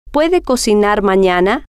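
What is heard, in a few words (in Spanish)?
puede Cocinar Manana, spanish, female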